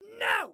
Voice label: "No", Excited Guy